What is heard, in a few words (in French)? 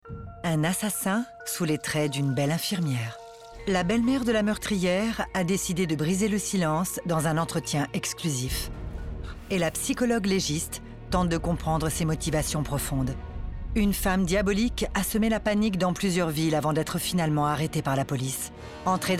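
femmes diaboliques, voix off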